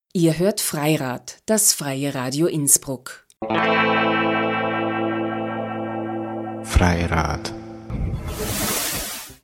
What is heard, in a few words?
jingle ihr hoert freirad